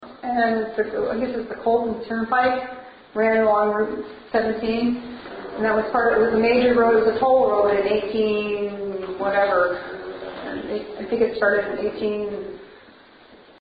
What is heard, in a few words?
Voice Over; Patchett House EVPs